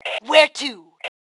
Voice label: Need Where To, Female